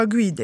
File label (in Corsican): "guide a", Moita Verde (nord)